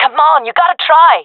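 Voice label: round losing, female voice; player vo; Counter Strike Model Pack; CS:GO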